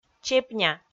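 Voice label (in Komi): "чепня", Komi, female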